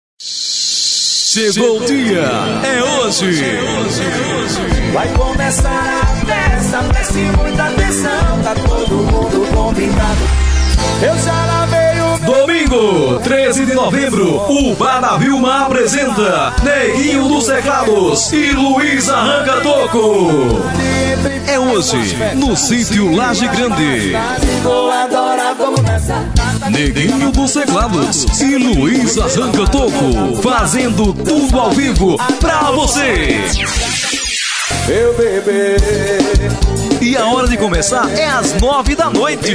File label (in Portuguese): locutor chamada bar; bar da Vilma